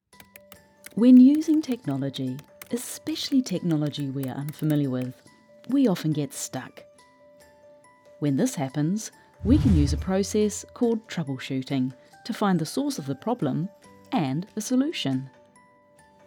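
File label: e-Learning Troubleshooting, New Zealand English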